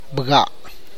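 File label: Tlacochahuaya Zapotec; necklace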